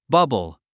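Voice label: "bubble", English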